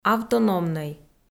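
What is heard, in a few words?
"автономнӧй", Komi, female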